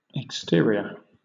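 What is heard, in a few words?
"exterior", British English; pronunciations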